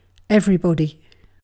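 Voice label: "everybody", British English; pronunciations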